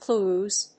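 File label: "clues", English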